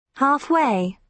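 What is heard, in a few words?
"halfway", British English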